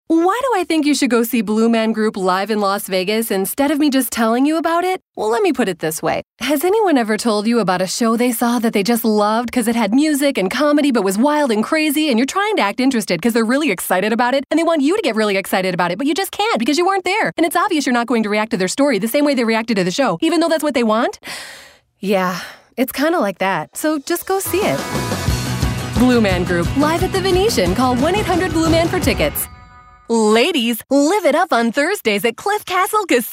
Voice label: Upbeat Perky